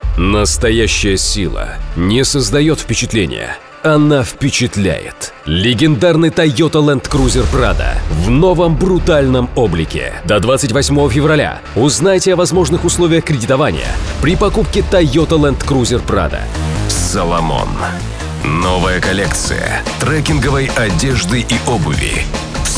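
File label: male voice, Russian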